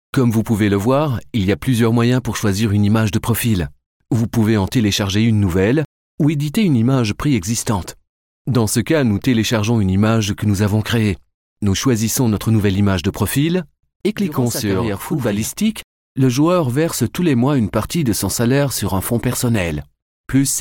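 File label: French, Corporate; GoLocalise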